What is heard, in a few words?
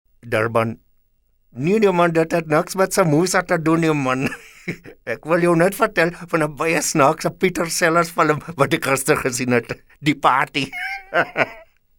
AFRIKAANS DURBAN INDIAN